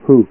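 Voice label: "hu", Japanese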